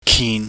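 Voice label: "kin", Cantonese, low tone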